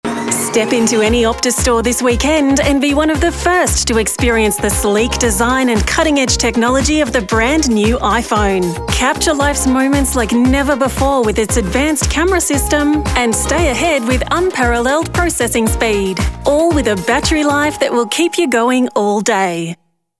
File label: Commercial; Optus